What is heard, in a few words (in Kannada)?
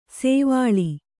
"ಸೋವಳಿ", Kannada